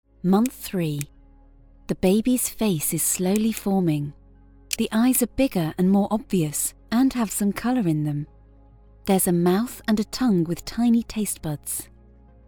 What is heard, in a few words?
E Learning Sample; NCF